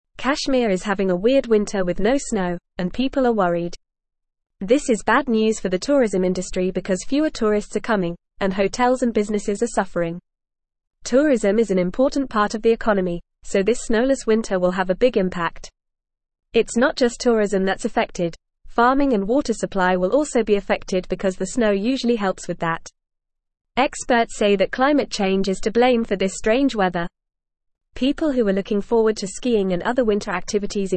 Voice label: English FAST Reading; English Newsroom